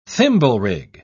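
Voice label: "thimblerig", British English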